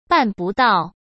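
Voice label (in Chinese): "办不到", Mandarin Chinese; hsk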